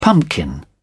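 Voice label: "pumpkin", British English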